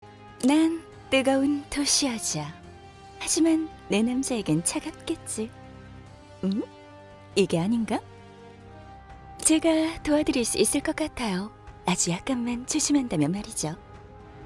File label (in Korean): 게임 섹시한 20대